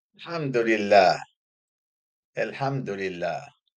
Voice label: "الحَمدُ لله", Arabic; Thanks be to God.; introductory greetings

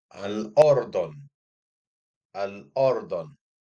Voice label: "الأُردُن", Arabic; Jordan; introductory greetings

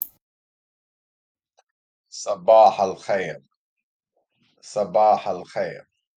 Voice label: "صَباح الخير", Arabic; Good morning. (greeting); introductory greetings

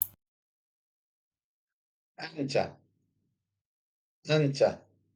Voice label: "إنتَ", Arabic; you (masc.); introductory greetings

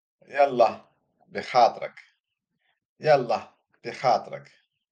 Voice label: "يالله بخاطرِك", Arabic; Goodbye. (fem.); introductory greetings

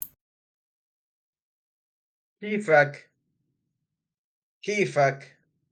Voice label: "كيفَك؟", Arabic; How are you? (masc.); introductory greetings

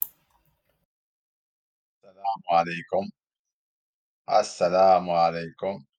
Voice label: "السَّلامُ عَلَيكُم", Arabic; Peace be upon you.; introductory greetings

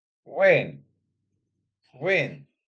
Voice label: "وين", Arabic; where; introductory greetings

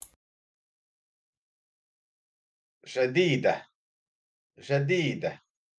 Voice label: "جَديدة", Arabic; new (fem.); introductory greetings

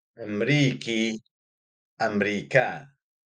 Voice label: "أميركي", Arabic; American (masc.); introductory greetings

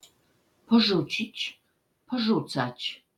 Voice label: "جَديد", Arabic; new (masc.); introductory greetings

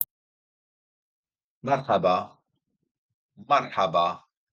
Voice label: "مَرحَبا", Arabic; Hello.; introductory greetings

